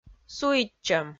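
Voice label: "суитчӧм", Komi-Zyrian, female; audio dict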